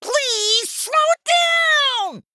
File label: Male Gnome Play